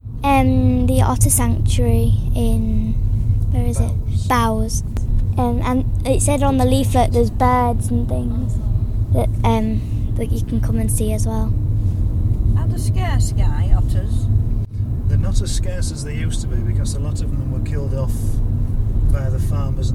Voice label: East Yorkshire Beverley UK White Female 12 White Male Middle Age; europe; british